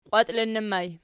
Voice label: "qwatiinnur", Archi

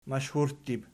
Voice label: "mashhurttib", Archi

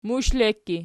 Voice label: "mushlekki", Archi

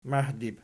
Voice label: "mahdib", Archi

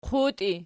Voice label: "quti", Archi

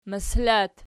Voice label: "mosol", Archi

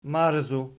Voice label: "marzu", Archi

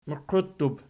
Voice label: "muqiuttub", Archi

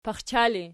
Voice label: "paxcha", Archi

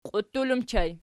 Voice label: "qiuttul", Archi

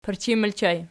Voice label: "pirchin", Archi

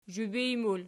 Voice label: "zhubij", Archi

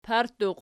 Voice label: "partuqi", Archi

